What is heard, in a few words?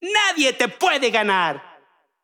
Extreme Positive